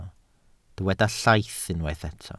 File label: "llaith", Welsh